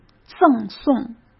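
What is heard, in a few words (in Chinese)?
"赠送", Mandarin Chinese; chinese-flashcards; hsk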